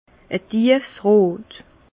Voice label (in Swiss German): "teifsrot", Bas Rhin; vin; rouge profond